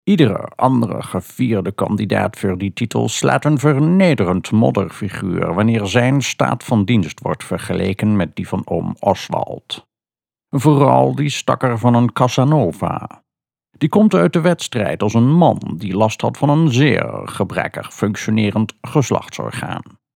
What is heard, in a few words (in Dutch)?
NL Goois